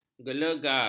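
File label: "gelegar", Malay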